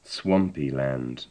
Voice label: swampy land, english

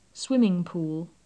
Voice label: swimming pool, english

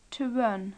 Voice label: to run, english

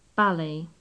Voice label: "ballet", english

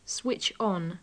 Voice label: "switch on", English

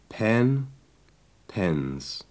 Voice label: "pen" "pens", English

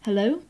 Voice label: "hello", English

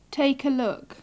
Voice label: "take a look", English

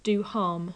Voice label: do harm, english

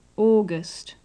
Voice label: "august", English

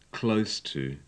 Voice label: close to, english

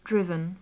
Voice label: "driven", english

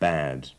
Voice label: "bad", English

